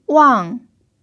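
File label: "wang4", Chinese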